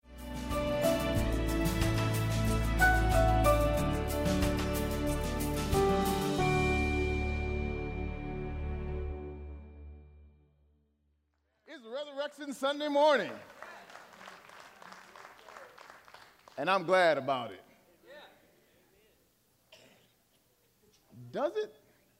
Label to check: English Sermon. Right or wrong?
Right